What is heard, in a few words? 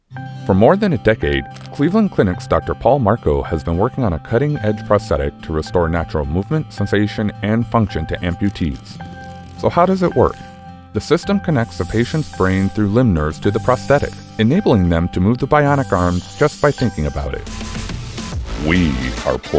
narration